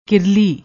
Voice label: "kirli", Punjabi; ਕਿਰਲੀ